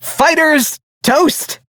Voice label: Crew Gun Kill Fighter, Male; Warframe; Railjack; Perrin Sequence